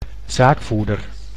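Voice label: "zaakvoerder", Dutch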